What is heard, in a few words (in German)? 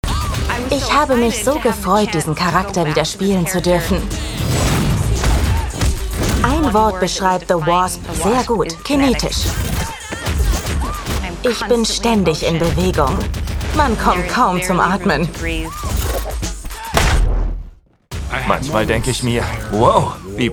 K Fremdtake Dynamisch